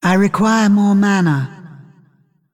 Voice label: Female Dragon ERROR NEED MANA